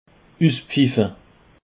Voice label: "uspfiffe", Alsace